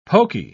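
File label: "pokey", British English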